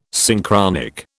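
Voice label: "Synchronic", British English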